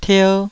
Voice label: "tier2", taiwanese Syllables